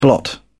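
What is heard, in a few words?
"blot", British English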